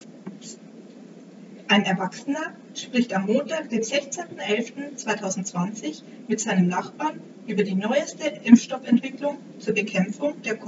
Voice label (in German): Umgangssprache Textbeispiel; Textbeispiel 4 Umgangssprache; Textquelle Erika Fuchs